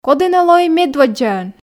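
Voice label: "коді нӧ лои медводдзаӧн", Komi, female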